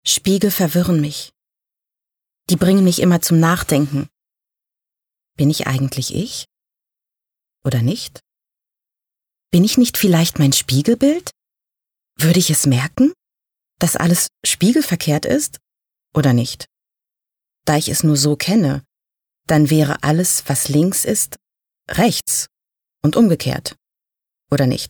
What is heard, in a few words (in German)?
Innerer Monolog